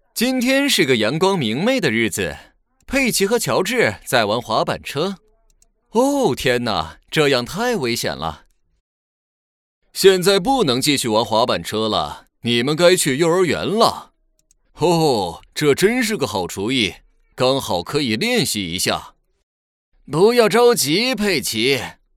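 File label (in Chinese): 角色旁白爸爸爷爷; 小猪佩奇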